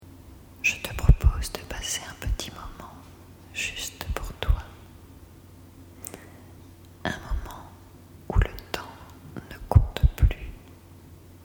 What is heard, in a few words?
relaxation, asmr